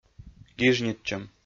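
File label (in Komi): "гижнитчӧм", Komi, male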